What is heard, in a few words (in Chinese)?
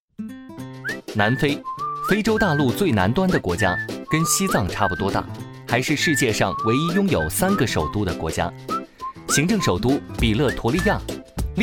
男 素人; 素人风格男声